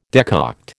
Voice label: "decoct", American

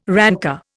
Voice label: "rancour", Indian

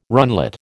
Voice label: "runlet", American

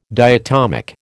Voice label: "diatomic", American

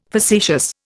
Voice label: "facetious", Indian

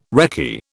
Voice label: "recce", British

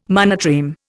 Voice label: "monotreme", Indian

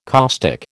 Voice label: "caustic", American

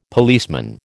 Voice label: "policeman", American

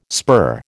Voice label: "spur", American

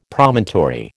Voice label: "Promontory", American

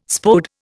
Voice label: "Spode", Indian

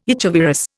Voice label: "echovirus", Indian

